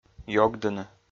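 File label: "ёгдыны", Komi, male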